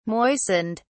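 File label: "moistened", British English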